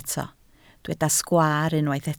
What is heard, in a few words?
"sgwaar", Welsh